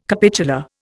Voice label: "capitular", Indian